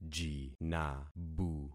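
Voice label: "jinabu", concatenated syllables; Affixing experiment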